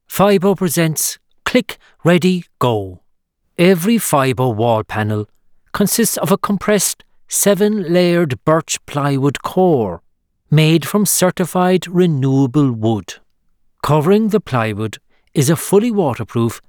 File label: Corporate Narration